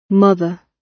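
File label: "mother", British English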